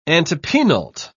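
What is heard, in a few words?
"antepenult", British English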